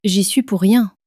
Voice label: "j y suis pour rien", French